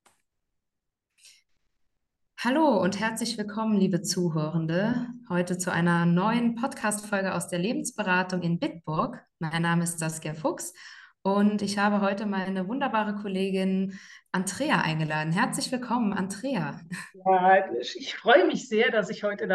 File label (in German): Podcast; elterntankstelle; tankstelle fuer eltern mit kindern in der pubertaet